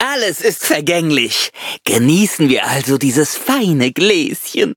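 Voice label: levelup, German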